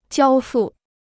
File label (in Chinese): "交付", Chinese